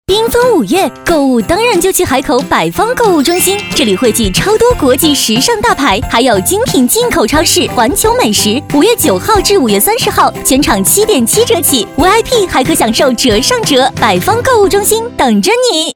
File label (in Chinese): 广告 促销 购物中心 轻快